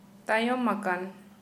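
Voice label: Santali, female, backwards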